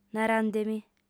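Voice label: "narande·mi", Sardinian, female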